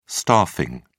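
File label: "staffing", British English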